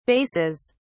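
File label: "basses", British English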